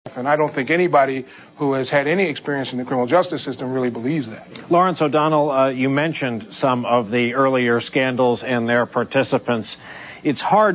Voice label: dingdong Robot Laffs; MS-NBC; Guest; DeadBody; HOST